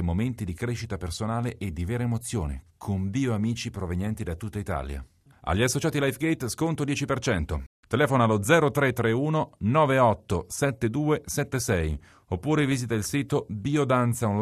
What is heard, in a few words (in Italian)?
spot biovacanza; Radio Life Gate; bio vacanza in sardegna estate 2014